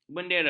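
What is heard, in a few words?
"bendera", Malay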